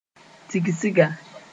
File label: "tigsga", Moore; meeting